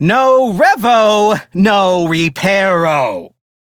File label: Crew Need Revolite, Male; Dialog; Warframe; Railjack Crew; Perrin Sequence